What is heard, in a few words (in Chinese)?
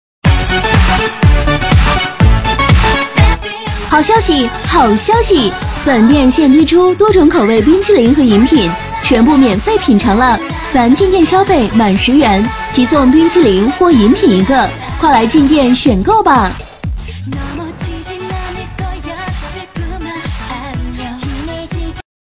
女 促销 冰淇淋饮品店, 促销女配